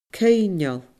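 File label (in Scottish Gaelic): "coibhneil", Scottish Gaelic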